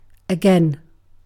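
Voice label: "again", British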